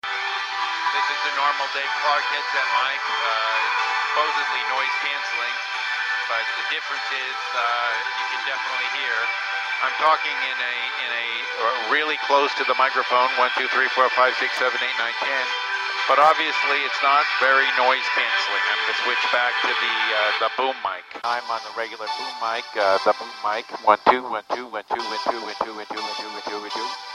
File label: headset mic compare